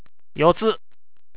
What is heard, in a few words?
"yosu", HIROSHIMA ben; よす 寄せる